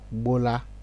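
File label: "gbola", Nigerian Pidgin, male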